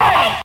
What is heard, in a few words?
"uh" group of men; ooh ahh; uh um